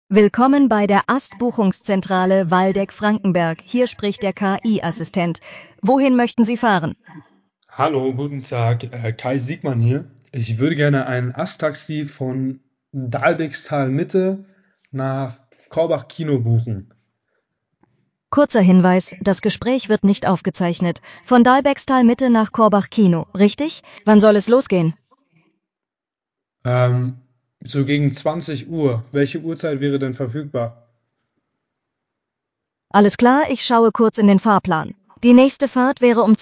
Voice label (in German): telefonagent